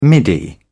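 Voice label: "middy", British English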